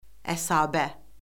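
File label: "əshabə", Azerbaijani; Orfoqrafiya Lüğəti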